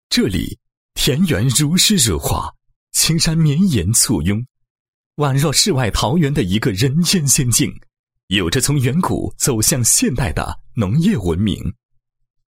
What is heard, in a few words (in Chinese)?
男 城市宣传, 配音; 千年诗画 岭南仙邑美丽翁源印象